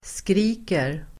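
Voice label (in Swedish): "skriker", Swedish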